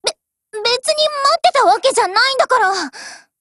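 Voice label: Japanese, Voice; GF Alarm; MicroUZI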